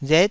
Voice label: "ret9", taiwanese